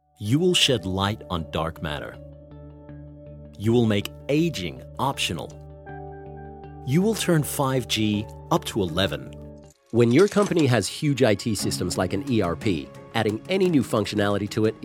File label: US commercial